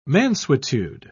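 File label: "mansuetude", English, British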